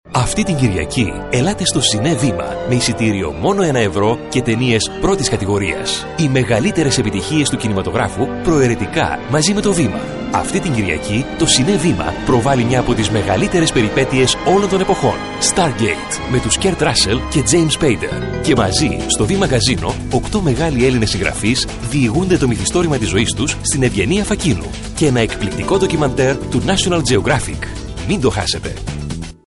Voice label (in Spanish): locutor griego; Greek male voiceover